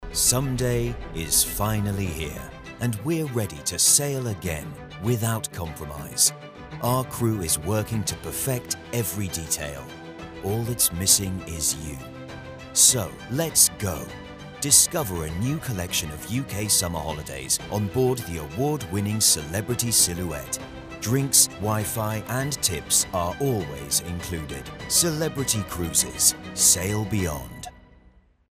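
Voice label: British English, male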